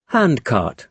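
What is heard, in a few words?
"handcart", British English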